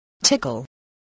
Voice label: "tickle", spelling

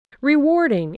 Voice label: "rewarding", English

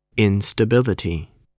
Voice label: "instability", voice spelling

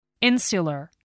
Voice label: "insular", voice spelling

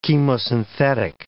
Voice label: "chemosynthetic", English

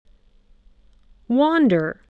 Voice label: "wander", spelling

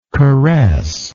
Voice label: "caressant", English

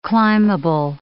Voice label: "climbable", English

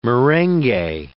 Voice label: "merengue", English

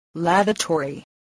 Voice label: "lavatory", English

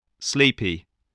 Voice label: "sleepy", voice, spelling

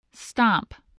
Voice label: "stomp", voice spelling

